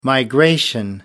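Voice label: "migration", British English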